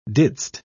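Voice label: "didst", British English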